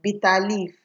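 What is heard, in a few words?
"bitterleaf", Nigerian Pidgin, female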